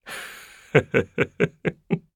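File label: story, Japanese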